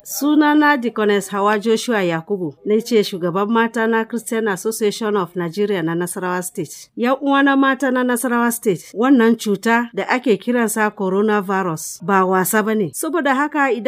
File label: FEMALE HAUSA